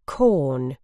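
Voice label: "corn", British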